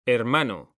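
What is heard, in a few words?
"hermano", Spanish, male; mekanta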